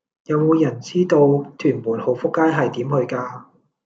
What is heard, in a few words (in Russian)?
Голоса, Гонконгский